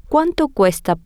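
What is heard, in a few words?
how much is, spanish, female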